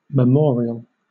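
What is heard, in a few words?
"memorial", British English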